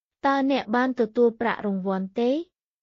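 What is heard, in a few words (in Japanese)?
クメール語（カンボジア語）の日常会話基本フレーズ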